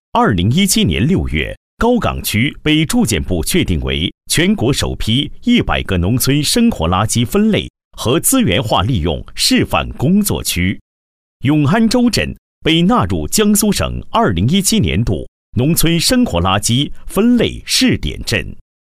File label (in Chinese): 男国 专题 汇报 厚重; 高港区垃圾分类试点工作汇报